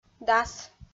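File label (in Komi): "дас", Komi, female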